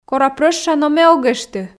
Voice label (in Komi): кора прӧща но ме ог эшты, f